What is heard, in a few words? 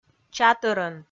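"чатӧрӧн", Komi, female; kpv audio dict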